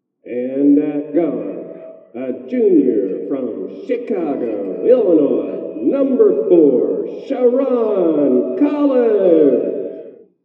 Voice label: Announcer Tube